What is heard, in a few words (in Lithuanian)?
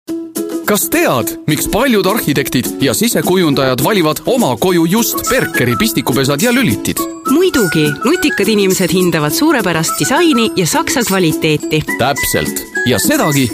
VYR Estų balsas, vyras